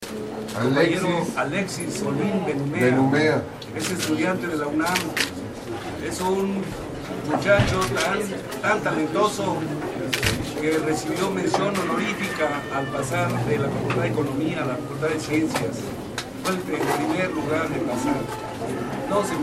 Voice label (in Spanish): hombre; presas del penal de santiaguito; salinas sobre alexis